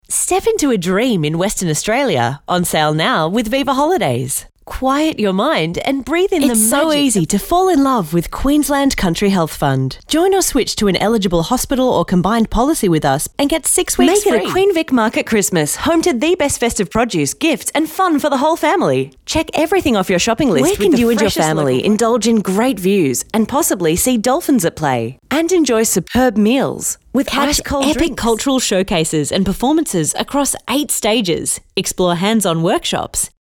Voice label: Hard Sell